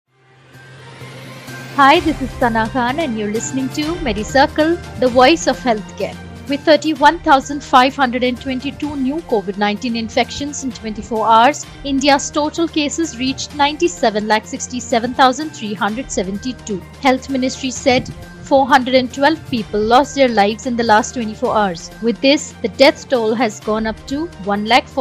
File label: english news